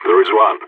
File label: radio enemy spotted, vo agents; phoenix